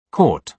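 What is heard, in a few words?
"court", British English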